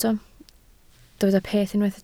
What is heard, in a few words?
"peth", Welsh